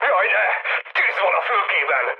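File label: on fire, mood med, Hungarian; War Thunder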